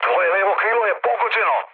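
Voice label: Serbian left wing mood low, speech; War Thunder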